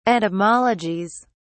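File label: "etymologies", British English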